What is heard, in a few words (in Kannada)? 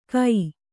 "ಕೈ", Kannada